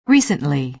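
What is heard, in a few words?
"recently", American English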